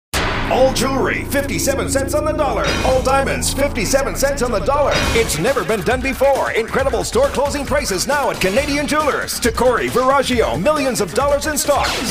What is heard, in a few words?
hard sell